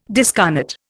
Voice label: "discarnate", Indian